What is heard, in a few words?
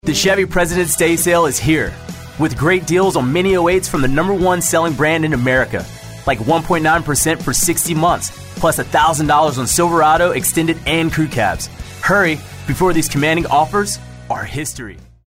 hard sell